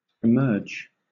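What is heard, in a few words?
"emerge", British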